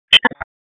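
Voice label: Police Northeast District Disp, radio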